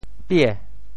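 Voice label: "pie2", Teochew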